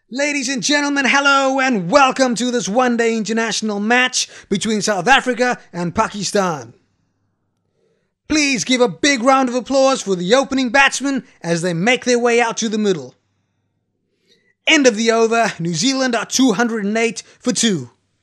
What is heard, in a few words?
commentary generic south africa